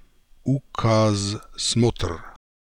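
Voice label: "Ookazemotra", pronunciations; Fourth Way; Beelzebub pronounciations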